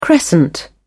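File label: "crescent", British English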